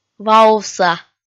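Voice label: "ваувса", Komi, female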